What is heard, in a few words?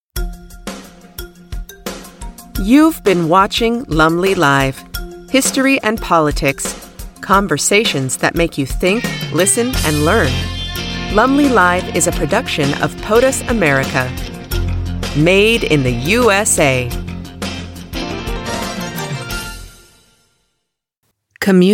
usa